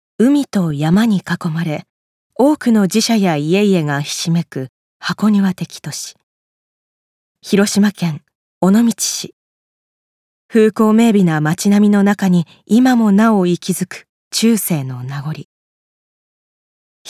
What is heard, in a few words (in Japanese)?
紀行ナレ